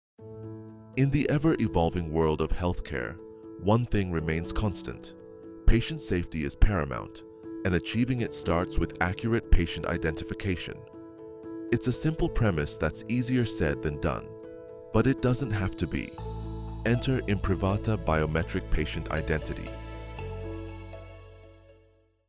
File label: English VO; BPI Overview video